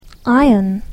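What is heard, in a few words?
"iron", English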